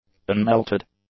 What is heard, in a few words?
"unmelted", English; adjectives